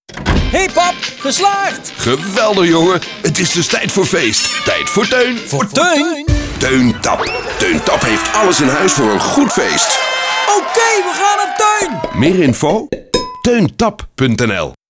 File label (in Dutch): Radiocommercial